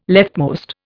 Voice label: "leftmost", Indian

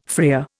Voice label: "freer", Indian

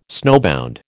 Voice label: "snowbound", American

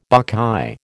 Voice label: "buckeye", American

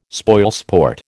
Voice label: "spoilsport", American

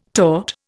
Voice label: "taught", Indian

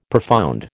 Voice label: "profound", American

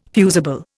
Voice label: "fusible", Indian

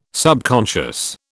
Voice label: "subconscious", British

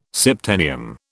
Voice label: "septennium", British

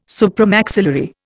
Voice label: "supramaxillary", Indian

